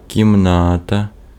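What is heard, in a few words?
Ukrainian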